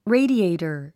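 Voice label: "radiator", English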